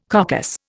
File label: "coccus", Indian